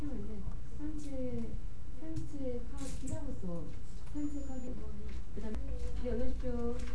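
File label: "pick", spoken word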